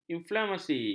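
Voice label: "inflamasi", Malay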